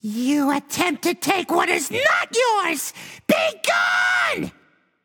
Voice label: taunt directed; ratatosker; smite; xingtian